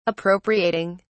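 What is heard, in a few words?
"appropriating", British English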